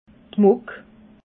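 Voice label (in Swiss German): "muck", Alsatian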